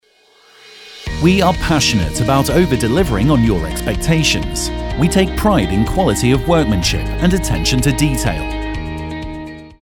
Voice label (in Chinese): 外籍英男 公司广告 深沉